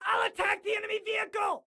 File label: Self Attack Vehicle, Excited Guy